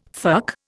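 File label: "fuck", Indian English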